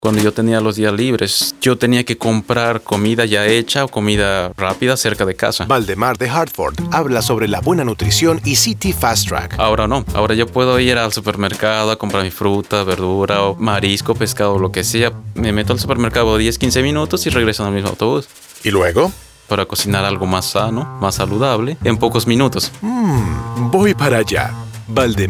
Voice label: Radio Spanish